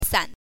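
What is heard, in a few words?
"san", Mandarin Chinese, neutral tone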